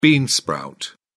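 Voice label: "bean sprout", English, British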